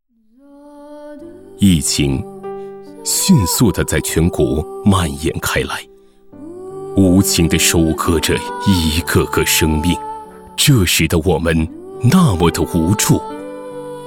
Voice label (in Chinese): 男 专题 抗疫; 大爱无疆